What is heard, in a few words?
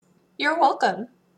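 "you're welcome", English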